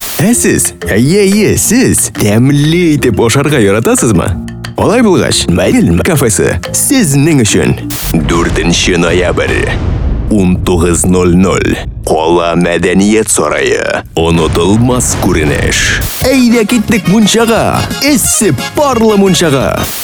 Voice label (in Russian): tatarskij yazyk